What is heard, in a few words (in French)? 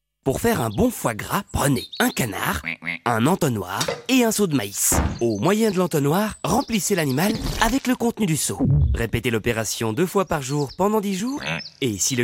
spot pub foie gras